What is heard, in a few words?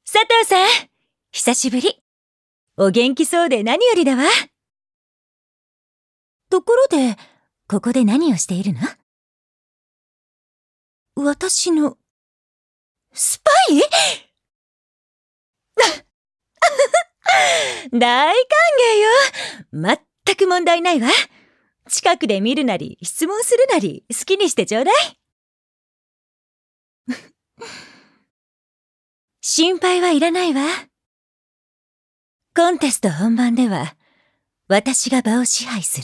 female